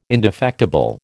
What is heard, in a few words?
"indefectible", American